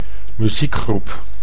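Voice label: "muziekgroep", Dutch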